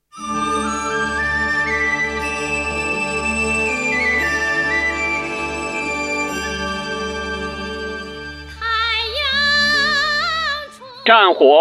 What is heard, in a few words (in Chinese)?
男 老广播 风格